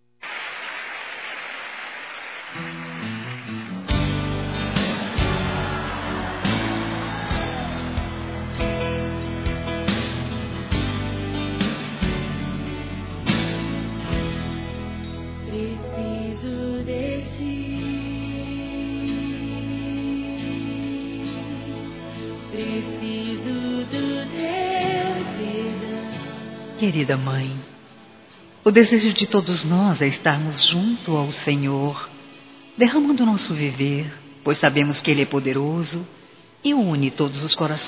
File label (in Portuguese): Aniversário Mãe Evangelico Feminino; Aniversários